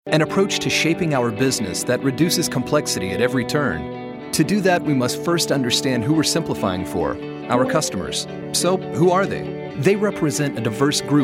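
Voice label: narration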